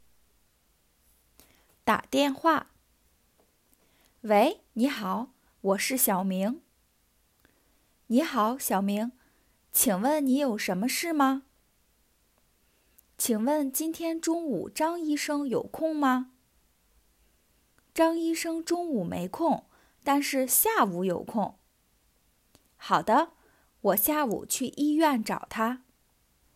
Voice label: telephone call, Mandarin; A chinese dialogue about booking a doctor’s appointment; Is Doctor Zhang available?; This conversation is aimed at beginners; Learn how to book a doctor's appointment in Mandarin Chinese.